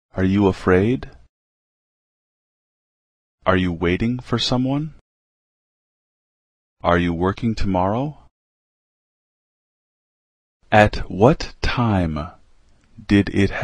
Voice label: English Sentences